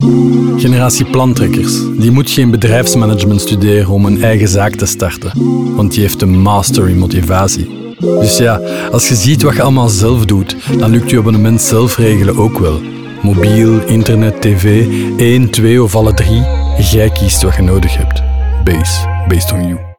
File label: Radiospot; Base; TBWA; generatie plantrekkers